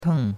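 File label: "teng4", Mandarin Chinese; Cours-de-Chinois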